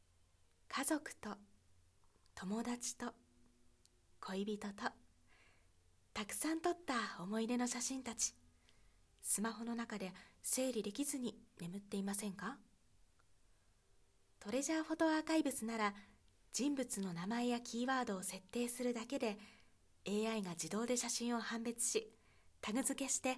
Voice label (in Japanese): 企業CM女性穏やか